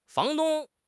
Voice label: "fang2 dong1", 汉语口语; 汉语口语新时代-初级下

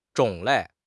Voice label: "zhong3 lei4", 汉语; 汉语口语新时代-初级下

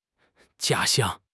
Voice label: "jia1 xiang1", 汉语; 汉语口语新时代-初级下

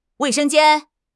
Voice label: "wei4 sheng1 jian1", 汉语; 汉语口语新时代-初级下

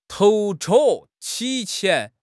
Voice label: "ti2 qian2", 汉语口语; 汉语口语新时代-初级下

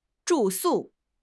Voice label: "zhu4 su4", 汉语口语; 汉语口语新时代-初级下

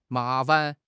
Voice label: "ma2 fan5", 汉语; 汉语口语新时代-初级下

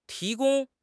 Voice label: "ti2 gong1", 汉语; 汉语口语新时代-初级下

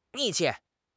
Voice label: "mi4 qie4", 汉语口语; 汉语口语新时代-初级下

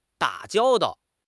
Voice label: "da3 jiao1 dao4", 汉语; 汉语口语新时代-初级下

